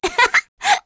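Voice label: Vox Happy, English; Hanus; kingsraid; voices heroes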